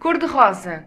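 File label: pink, Serbian; gcompris-data; colors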